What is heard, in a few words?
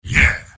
soldier m positive vocalization; tf2; garrysmod; mvm